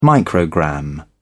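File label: "microgram", British English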